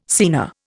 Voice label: "scena", Indian